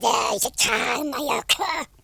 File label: angry; Confucious